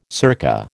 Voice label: "circa", American